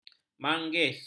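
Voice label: "manggis", Malay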